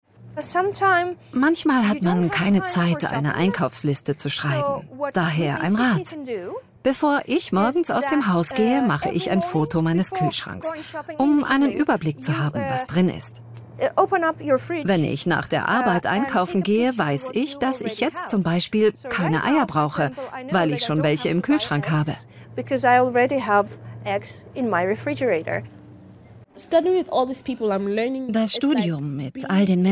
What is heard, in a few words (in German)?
VOICEOVER Medley Variationen Der Timbre Und Sprechstile; LOFT VOICES